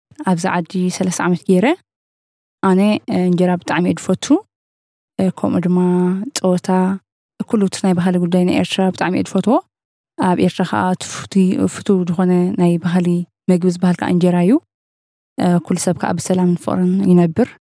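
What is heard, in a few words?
Tigrinya female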